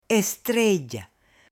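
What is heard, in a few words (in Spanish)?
"estrella", Spanish, female; OBJETOS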